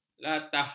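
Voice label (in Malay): "latah", Malay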